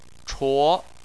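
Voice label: "chuo" second tone, Chinese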